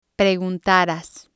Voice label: "praguntaras", spanish